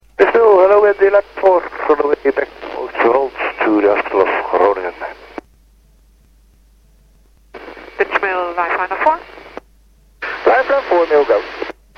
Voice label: lifeliner airborne oostwold inbound dutchmil fis 132.350 MHz; umcg